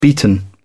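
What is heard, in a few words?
"beaten", British English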